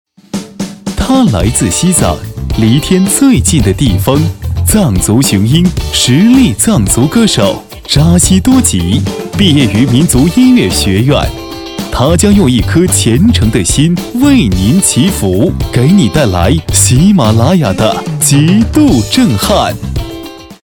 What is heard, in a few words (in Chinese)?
男, 广告, 片花包装